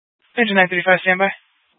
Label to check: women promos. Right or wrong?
Wrong